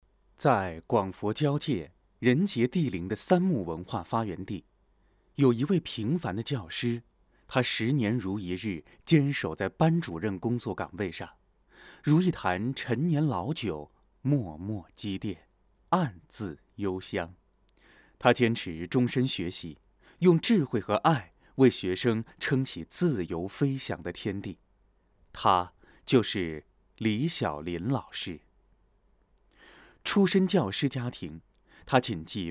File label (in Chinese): 教师试音